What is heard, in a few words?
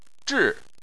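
"zhi", Mandarin Chinese, fourth tone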